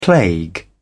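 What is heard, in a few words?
"plague", British English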